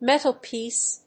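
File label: "metal piece", English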